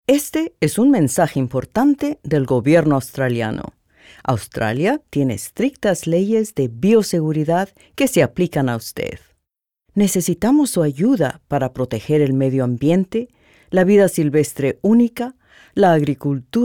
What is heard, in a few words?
incoming passenger announcement spanish; Passenger announcement - Spanish 2021; The Department of Agriculture, Fisheries and Forestry; aircraft